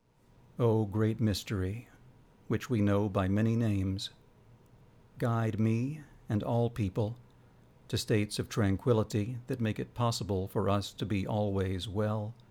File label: Prayer for Peace Through Action Mans Voice